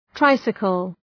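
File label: "tricycle", English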